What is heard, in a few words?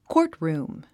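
"courtroom", English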